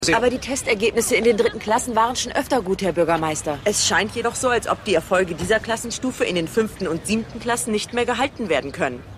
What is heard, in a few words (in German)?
Reporterin; Kathy Lally; The Wire